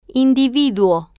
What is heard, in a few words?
"individuo", spanish